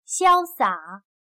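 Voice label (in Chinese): "潇洒", Chinese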